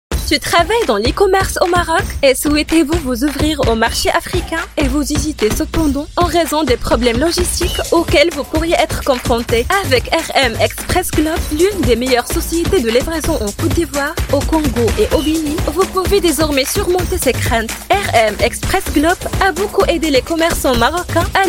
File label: voice fr, voice-over